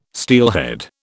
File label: "steelhead", British